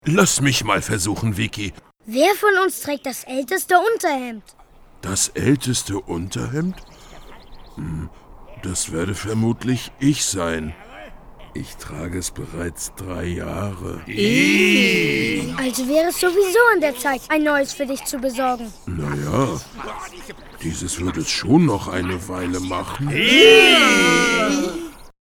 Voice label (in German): Hörspiel Kinder